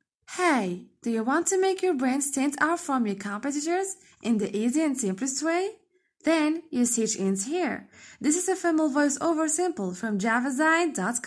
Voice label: Real English Female Voiceover Sample